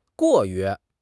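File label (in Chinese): "过于", Chinese; HSK6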